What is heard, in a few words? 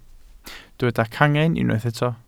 "cangen", Welsh